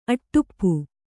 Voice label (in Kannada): "ಅಟಾಪ", Kannada